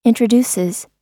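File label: "introduces", US English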